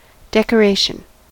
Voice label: "decoration", us